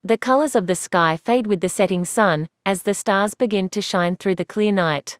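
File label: Australian English; iOS 27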